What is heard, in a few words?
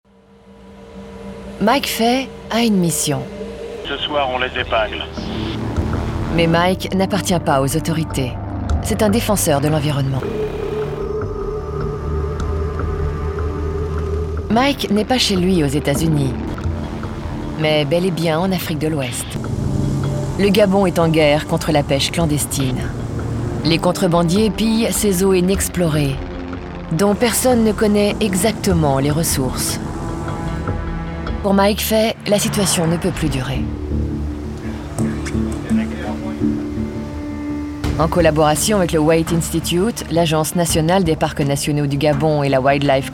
NARRATION; WILD GABON; NAT GEO